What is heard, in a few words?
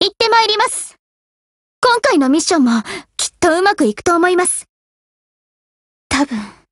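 BLACK ACTION Japanese, Voice; GF Alarm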